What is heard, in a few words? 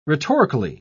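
"rhetorically", British English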